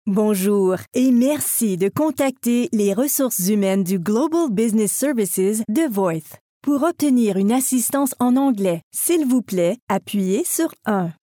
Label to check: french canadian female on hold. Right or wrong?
Right